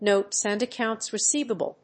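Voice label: "Notes and accounts receivable", English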